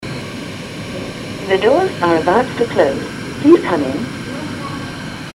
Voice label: elevator voice

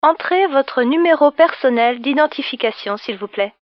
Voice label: indication number french

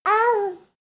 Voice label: baby talk

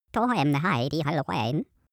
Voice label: cartoon male talk long

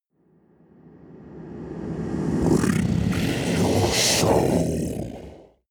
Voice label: demon dark voice reverse bring your soul

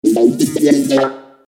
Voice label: alien speech

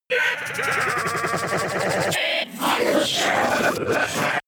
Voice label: crazy talk

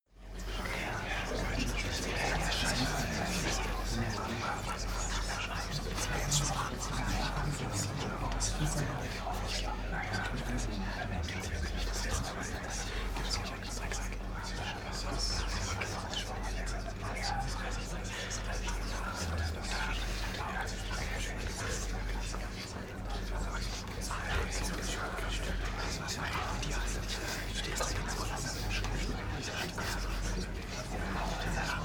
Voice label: male crowd whispering

